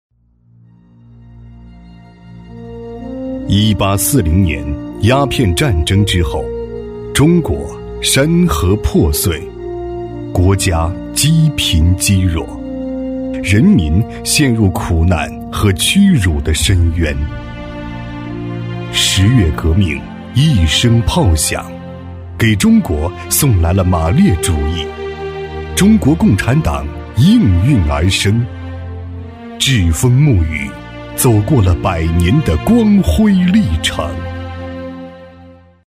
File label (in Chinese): 男 历史纪录片 厚重历史; 《1840》; 男B8-高端品质 成熟厚重